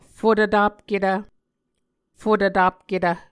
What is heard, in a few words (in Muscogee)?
"fotvtapketv", Muscogee